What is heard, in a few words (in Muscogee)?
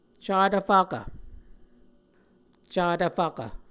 "catv fvkv", Muscogee